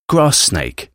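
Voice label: "grass snake", English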